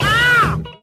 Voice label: ow female